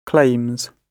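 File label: "claims", British English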